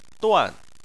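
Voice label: "duan" fourth tone, Chinese; Chinese Surnames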